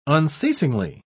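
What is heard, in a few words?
"unceasingly", British English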